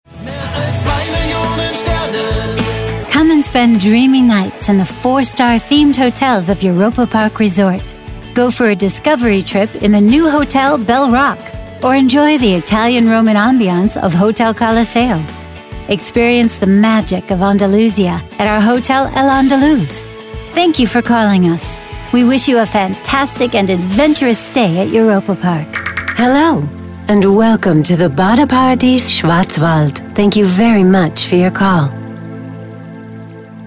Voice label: telefonansagen sprecher US

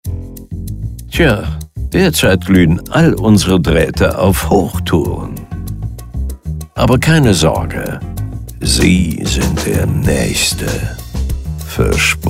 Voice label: Warteschleife stereo; Telefonwarteschleife; Telefonansage

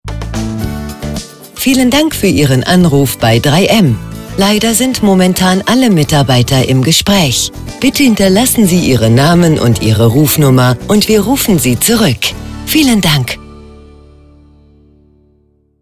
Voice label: Telefonansage

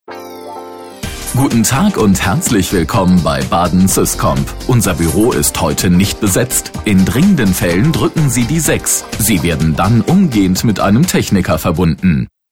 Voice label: Ansage

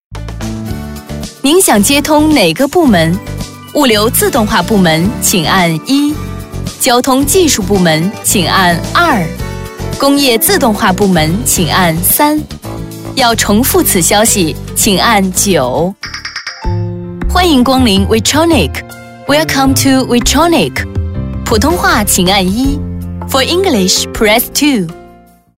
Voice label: telefonansagen sprecher cn; Native Speaker

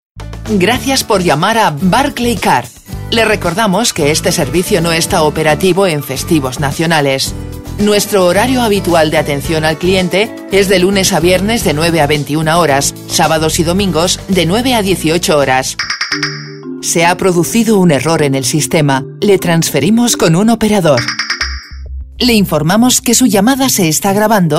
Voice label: telefonansagen sprecher es; Native Speaker

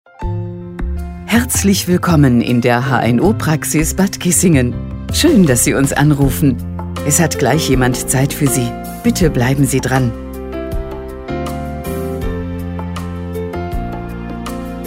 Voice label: Ansage stereo; Telefonansage Arzt – Praxis – Hausarzt – Augenarzt – Hautarzt – HNO – Arztpraxis – Ärzte

